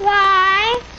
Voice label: "why", kid; questions